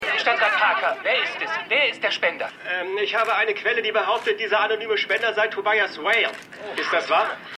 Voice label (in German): Reporter; Black Lightning